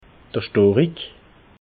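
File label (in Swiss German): "storik", Haut-Rhin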